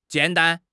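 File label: "jian3 dan1", Mandarin Chinese; HSK3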